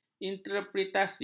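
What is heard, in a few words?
"interpretasi", Malay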